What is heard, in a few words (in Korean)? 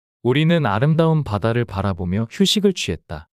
문장 남자; 우리는 아름다운 바다를 바라보며 휴식을 취했다